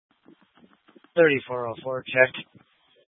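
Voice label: Incident Tactical, scanner audio recording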